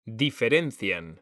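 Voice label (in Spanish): "diferencian", Spanish; mekanta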